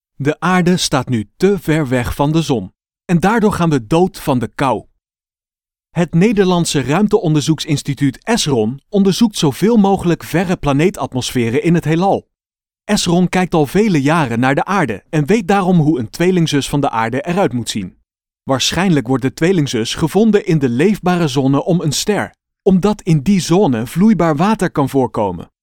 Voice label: children; nemo; Museum: De Zon en de Aarde

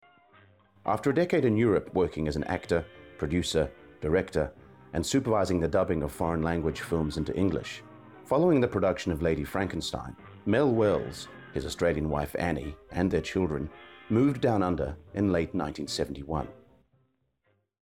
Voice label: narration excerpt; Frankenstein and the Lady; DVD documentary narration

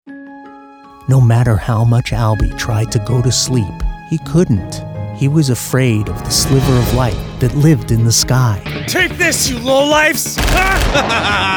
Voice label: Character

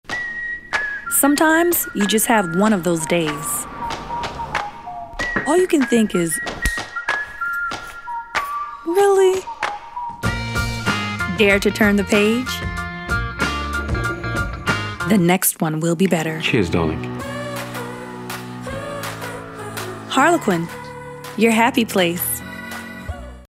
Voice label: Novel Commercial Voiceover; Harlequin

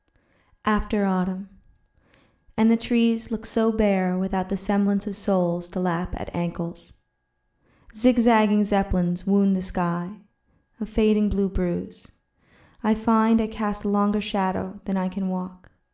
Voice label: poem; After Autumn

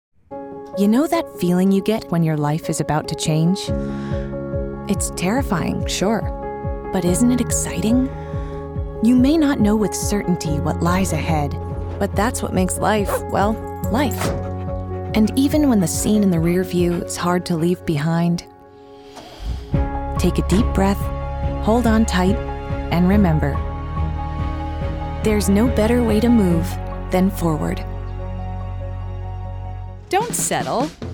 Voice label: Commercial

